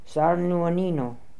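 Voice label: "Sarnuonino", pronunciations; Fourth Way; Beelzebub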